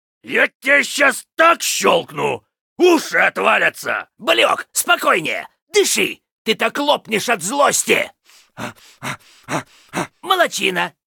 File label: Pissed; Heroes of the Storm; Lost Vikings